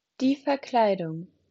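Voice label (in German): "Verkleidung", German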